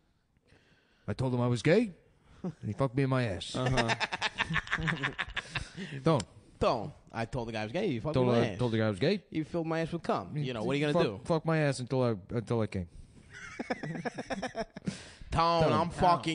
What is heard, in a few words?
Sopranos Impression; podcast; Cum Town